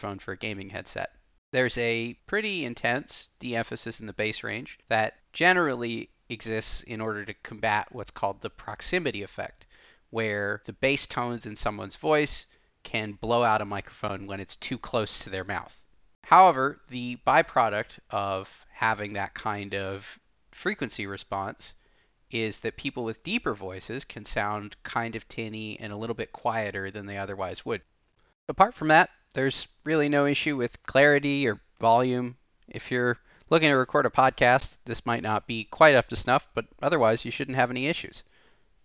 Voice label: HyperX Cloud II Wireless Mic sample

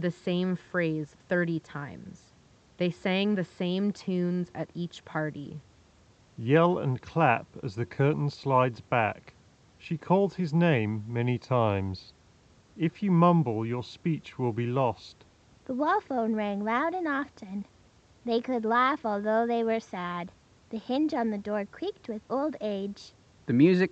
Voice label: Ideal microphone sample; Meze 99 Classics

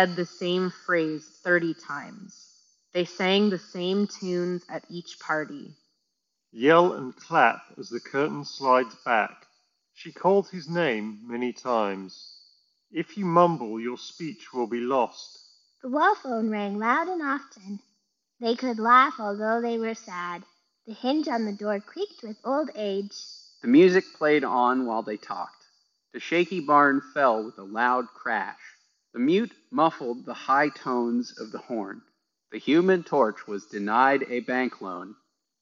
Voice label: Headphones Reverb microphone sample; Bose Quietcomfort

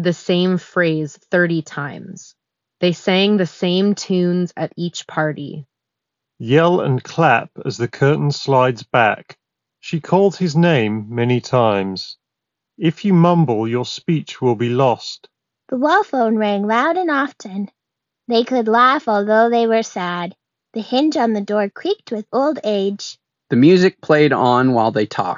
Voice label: Ideal microphone sample; Skullcandy Ecobuds

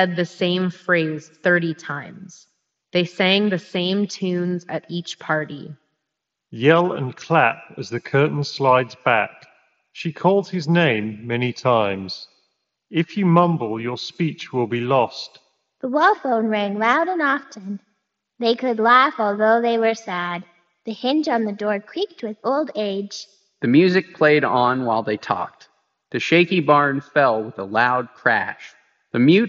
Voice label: Razer Barracuda Reverb microphone sample

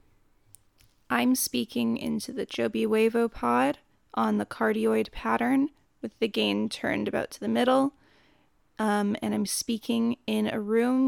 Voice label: cardiod speaking; Joby Wavo Pod

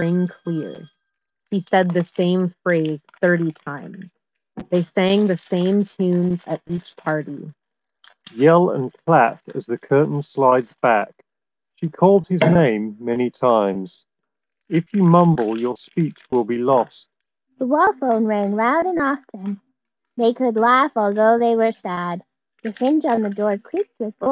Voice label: Office microphone sample; Status Between 3 ANC

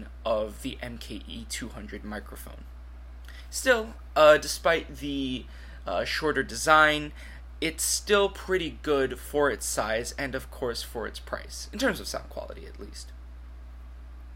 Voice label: Sennheiser MKE 200 Mic Test BG Noise

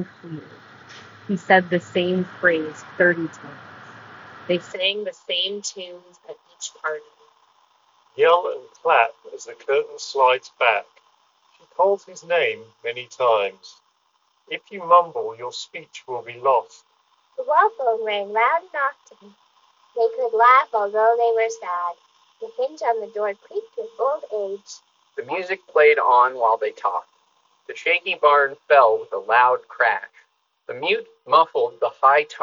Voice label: Street microphone sample; Jabra Elite 10 Gen 2

